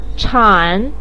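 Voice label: "chan3", Mandarin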